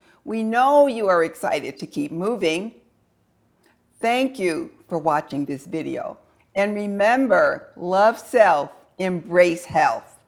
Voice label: scratchy voiced moderator crackle; sonic foundry crackle redux old plugin